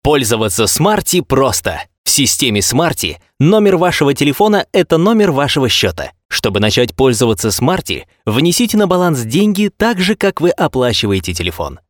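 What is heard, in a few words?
instruction, Russian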